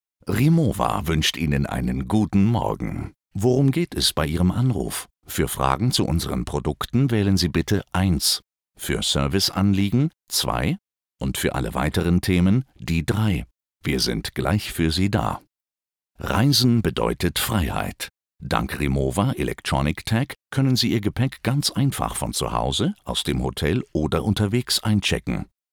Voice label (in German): tel; rimowa